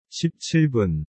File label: "17분", korean